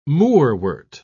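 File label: "moorwort", British English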